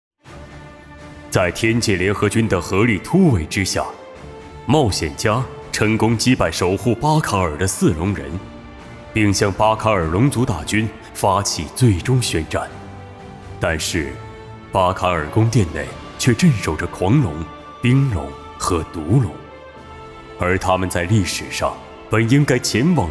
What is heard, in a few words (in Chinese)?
游戏中年音史诗感; 天界